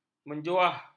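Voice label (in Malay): "menjuah", Malay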